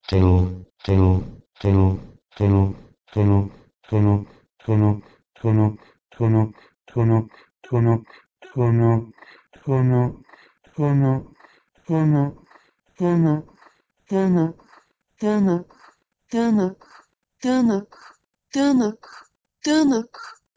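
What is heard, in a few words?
Proto-Indo-European tnh2u to Bulgarian tVnVk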